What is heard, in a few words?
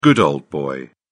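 "good old boy", British English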